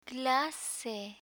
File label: "clase", Spanish; school vocabulary; learn spanish